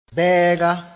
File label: "bɛɛga", moore; badfearless